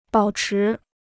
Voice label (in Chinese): "保持", Chinese